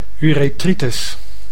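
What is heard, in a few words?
"urethritis", Dutch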